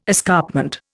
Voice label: "escarpment", Indian